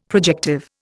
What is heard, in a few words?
"projective", Indian; Dictionary